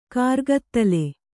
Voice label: "ಕರ್ಗತ್ತಲೆ", Kannada

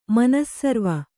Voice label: "ಮನಸ್ಸರ್ವ", Kannada

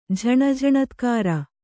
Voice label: "ಝಣಝಣತ್ಕಾರ", Kannada

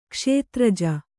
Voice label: "ಕ್ಷೇತ್ರಜ", Kannada

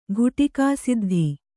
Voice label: "ಘುಟಿಕಾಸಿದ್ಧ", Kannada

